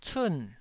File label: "cun4", Taiwanese syllable